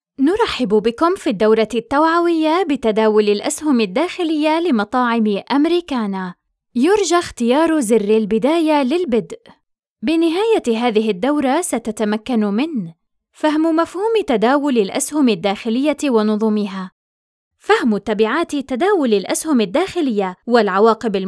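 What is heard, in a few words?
E Learning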